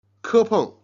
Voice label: "jian", Chinese

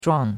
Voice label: "zhuang4", chinois; Cours-de-Chinois

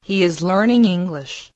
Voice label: Mandarin Conversation

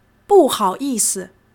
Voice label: "bu4 hao3 yi4 si", Chinese

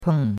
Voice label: "peng4", chinois; Cours de Chinois

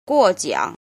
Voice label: "jin", Chinese

